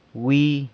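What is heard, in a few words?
"wi", guava, San Lucas Quiaviní Zapotec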